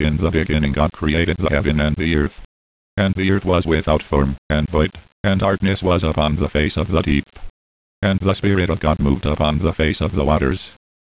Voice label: DoubleTalk Bible Passage, synthesizers